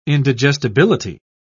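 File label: "indigestibility", British English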